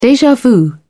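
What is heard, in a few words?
"deja vu", British English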